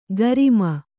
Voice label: "ಗರಿಮ", Kannada